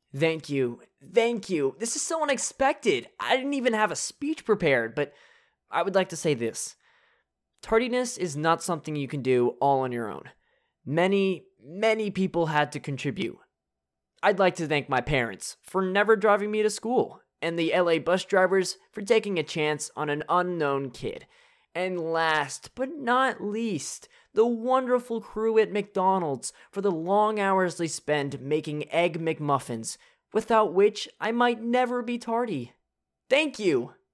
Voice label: 外籍 样音

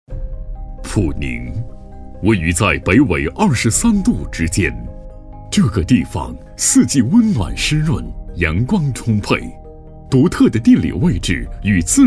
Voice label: 专题 大气浑厚

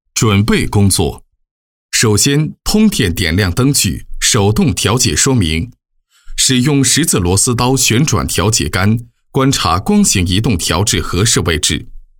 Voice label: 产品讲解 介绍 讲解

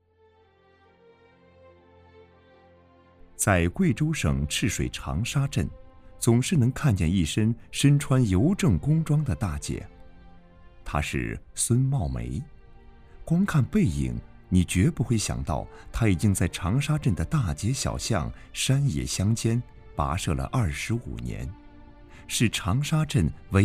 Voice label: 记录人物

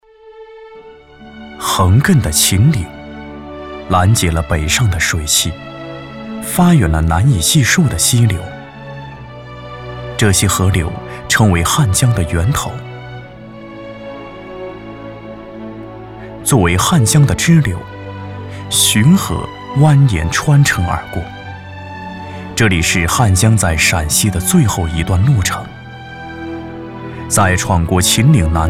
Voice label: 纪录片 深邃感

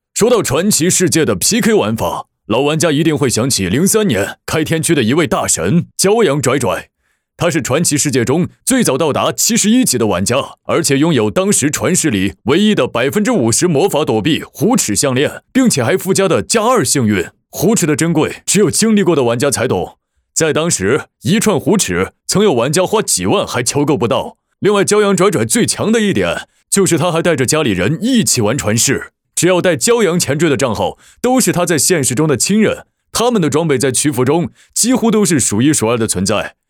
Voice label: 角色 中年大叔; 传世手游

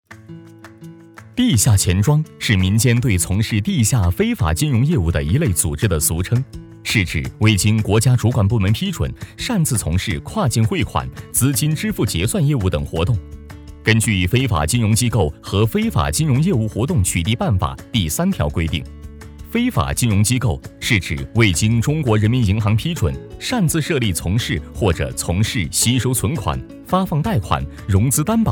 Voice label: MG 沉稳; 地下钱庄

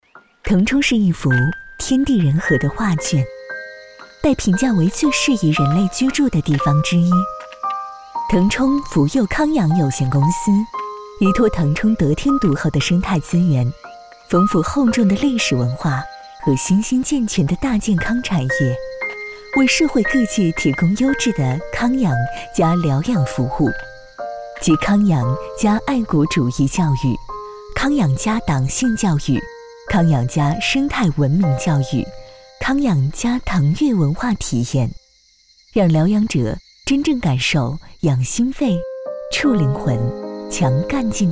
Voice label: 专题 宣传

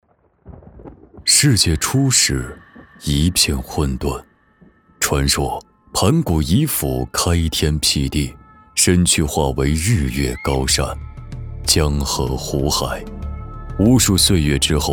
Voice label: 记录 远古苍凉; 起源

